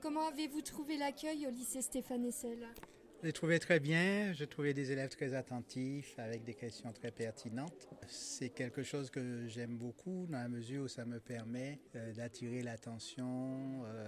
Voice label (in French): interview; P Chamoiseau